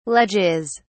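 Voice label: "ledges", British English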